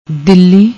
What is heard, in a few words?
"dilhi", Punjabi; ਦਿੱਲੀ